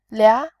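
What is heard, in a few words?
"lia2", Mandarin Chinese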